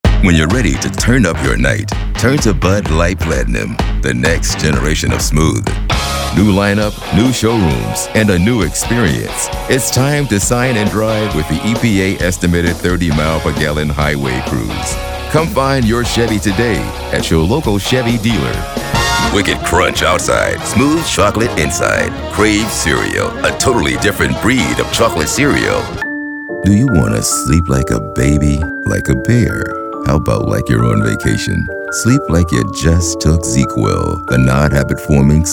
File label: Commercial Voiceover